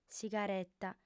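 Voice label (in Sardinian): "sigareta", female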